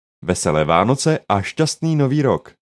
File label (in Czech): merry christmas czech